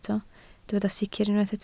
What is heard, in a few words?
"sicr", Welsh